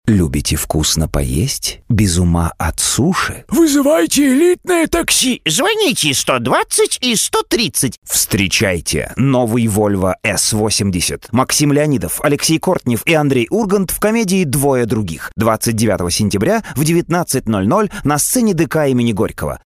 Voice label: Rusiškas balsas, vyras

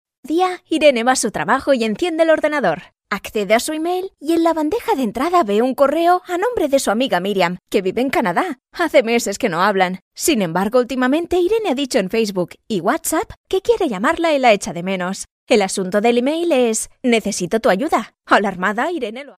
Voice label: (MOT) Ispanu balsas

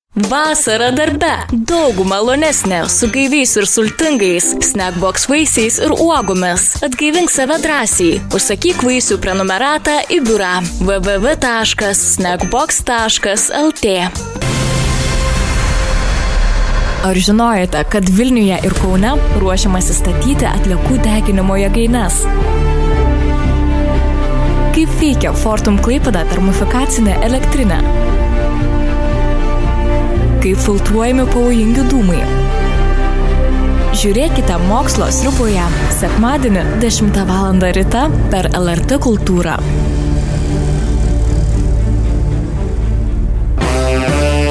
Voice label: balsai lietuviu moteris

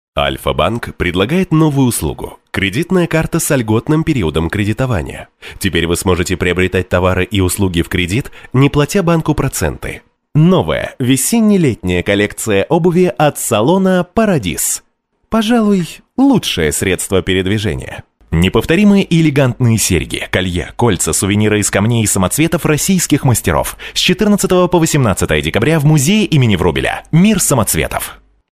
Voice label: Rusiškas balsas, vyras; rusu

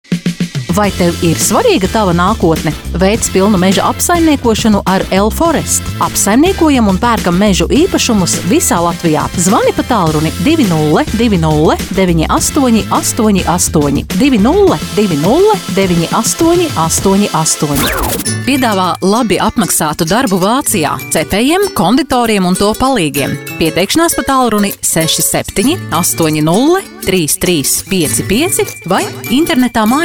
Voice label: latviu, moteris

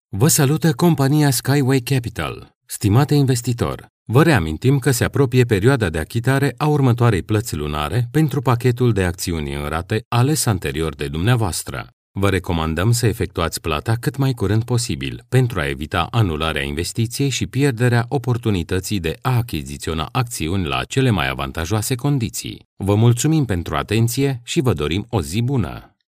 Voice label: (VYR) Rumunu balsas; vyras

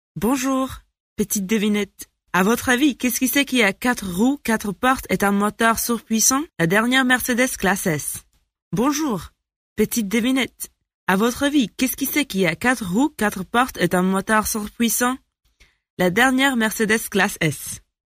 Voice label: (MOT) Prancuzu balsas

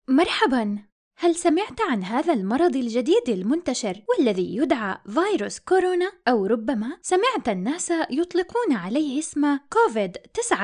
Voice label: (MOT) Arabų balsas, moteris

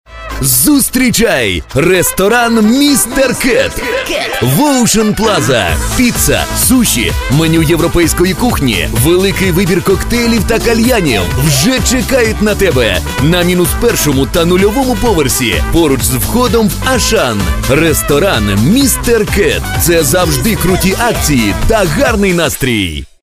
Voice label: Ukrainieciu balsas, vyras

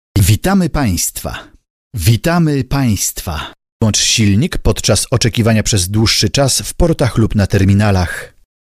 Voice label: VYR Lenku balsas, vyras

